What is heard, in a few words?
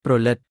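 "ព្រលឹត", Khmer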